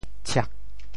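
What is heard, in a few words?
"tshiak4", Teochew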